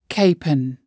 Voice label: "capon", spoken